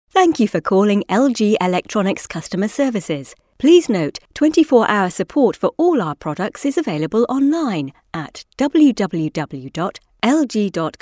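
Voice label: english british female; dictor; dictor-sounds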